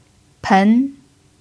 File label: "pen2", chinese pinyin